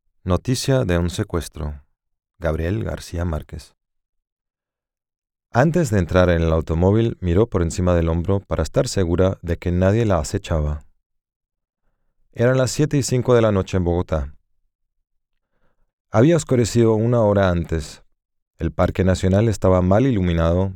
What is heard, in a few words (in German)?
prosa spanisch; marquez